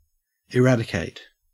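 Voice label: "eradicate", Australian English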